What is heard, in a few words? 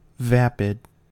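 "vapid", US English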